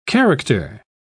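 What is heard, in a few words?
"character", English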